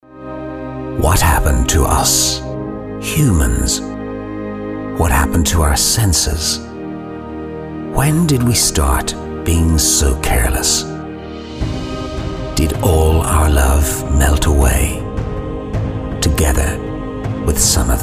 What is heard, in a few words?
commercial